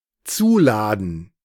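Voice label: "zuladen", German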